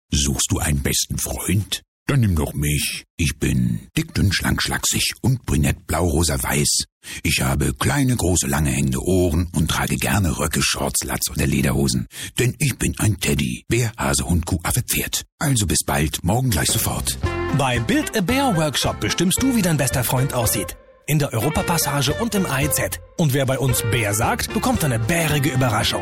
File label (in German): Trickstimme